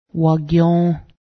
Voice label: garbage, Cayuga